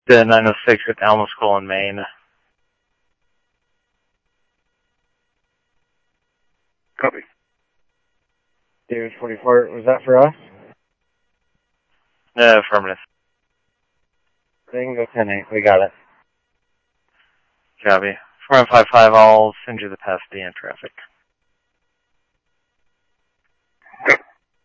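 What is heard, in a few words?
Mesa Simulcast Police Department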